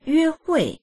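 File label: "yue1hui4", Mandarin Chinese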